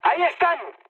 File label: enemy detected, mood high, speech; War Thunder